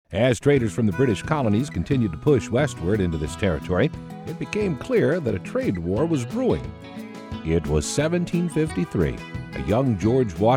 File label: narrative; copland